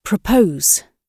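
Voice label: "propose", British English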